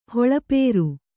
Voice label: "ಹೊಳಪೇರು", Kannada

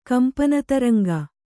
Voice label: "ಕಂಪನತರಂಗ", Kannada; kampaṇataraŋga; noun

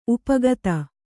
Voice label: "ಉಪಕಥೆ", Kannada

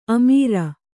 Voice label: "ಅಮರ", Kannada

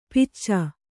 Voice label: "ಪಿಚ್ಚ", kannada

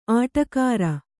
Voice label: "ಅಡಿಗಾರ", Kannada

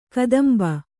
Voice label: "ಕದಂಬ", Kannada

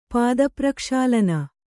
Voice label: "ಪಾದಪ್ರಕ್ಷಾಳನ", Kannada

